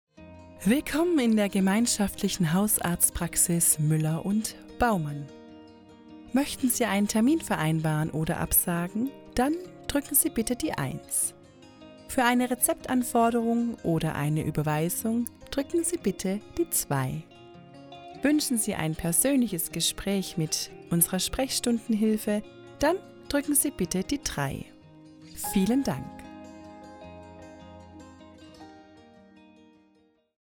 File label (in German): Beispiel Anrufbeantworter